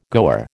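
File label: "goer", American English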